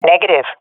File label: negative, swat fem; Counter Strike Model Pack; CS:GO